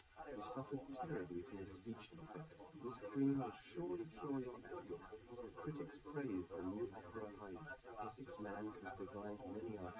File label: male live rec 250ms 1m sim; SiSEC11; underdetermined